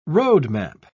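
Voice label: "roadmap", British English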